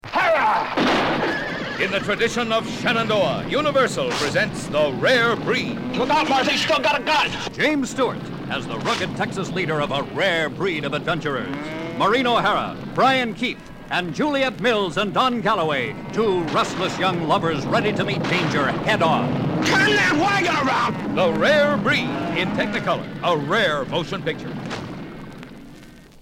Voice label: Radio Spot; Rare Breed